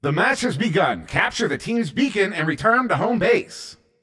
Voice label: Match Start, CTF Announcer